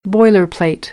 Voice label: "boilerplate", American English